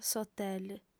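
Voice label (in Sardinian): "s'hotel", female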